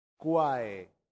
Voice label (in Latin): "quae", Latin; santillana